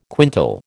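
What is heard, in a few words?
"quintal", American English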